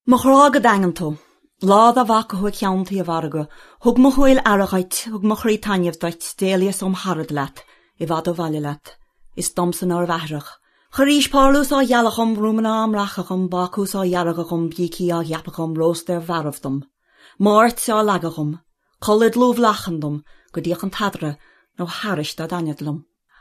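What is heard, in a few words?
Gaelic; Female, 30s-50s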